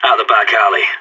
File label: back alley, radio; SAS